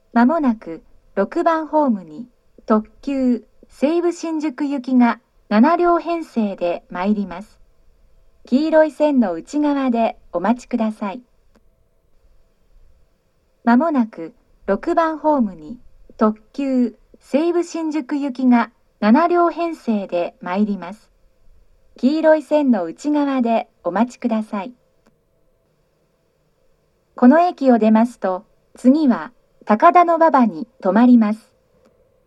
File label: limited express, next takadanobaba, station; higashi-murayama; seibu-shinjuku